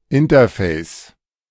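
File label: "Interface", German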